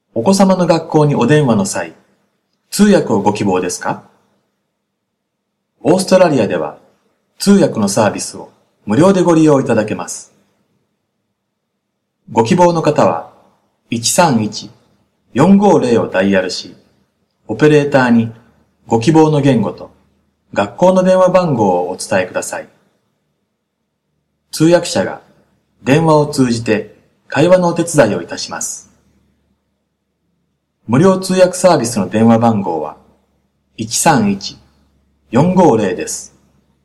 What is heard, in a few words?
japanese; public schools; going to a public school